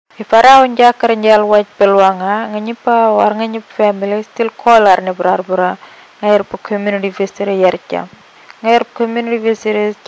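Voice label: Burarra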